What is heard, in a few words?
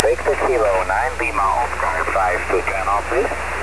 20 ssb